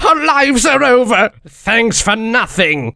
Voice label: jeers